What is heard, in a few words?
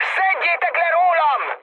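Hungarian aircraft damaged mood high, speech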